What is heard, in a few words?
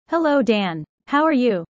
user fast; Animal friends